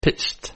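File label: "pitched", English word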